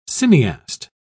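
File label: "cineast", British English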